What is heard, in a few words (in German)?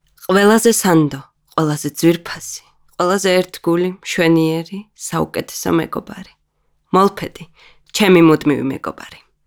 Georgisch, sprecherin